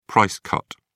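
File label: "price cut", British English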